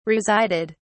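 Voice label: "resided", British English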